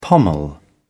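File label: "pommel", British English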